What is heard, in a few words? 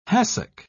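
"hassock", British English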